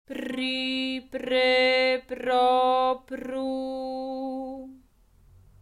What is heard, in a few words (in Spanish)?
Formantes vocales con boca de pez Pr I e a o u